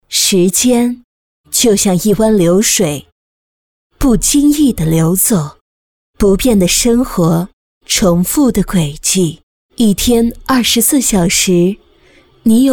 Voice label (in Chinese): 女 广告, 国语女声配音; 魔力宝贝